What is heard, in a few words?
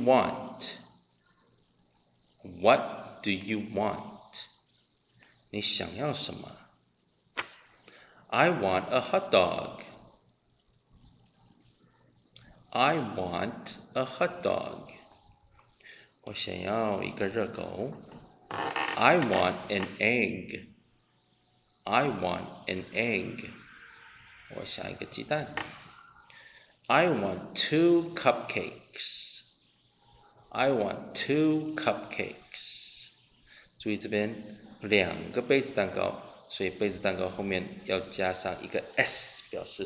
conversation